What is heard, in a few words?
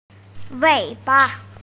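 "tail", hearing impaired; wei3ba5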